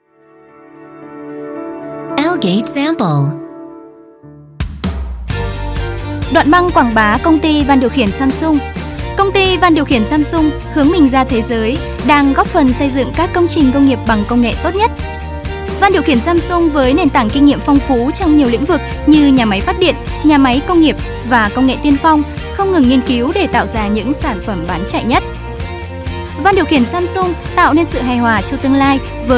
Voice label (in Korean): 베트남 여 밝은 톤